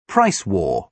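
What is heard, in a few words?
"price war", British English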